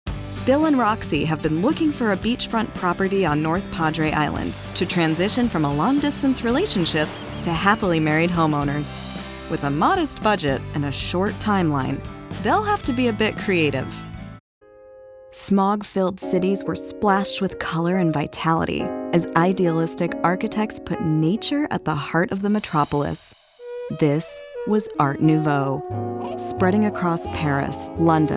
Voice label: Narration